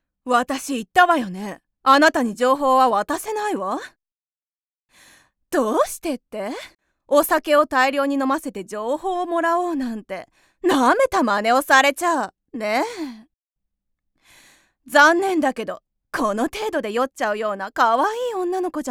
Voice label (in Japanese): セリフ5【かっこいいお姉さん】; ◇出身地：長野県